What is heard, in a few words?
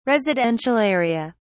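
"residential area", British English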